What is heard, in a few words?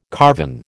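"carven", American English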